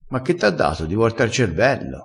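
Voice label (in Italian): "da di vorta", dialettale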